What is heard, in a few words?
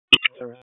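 EMS DISP, radio; p25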